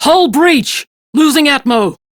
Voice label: Crew See Breach, Steel Meridian Female; Dialog; en; Warframe; RailjackCrew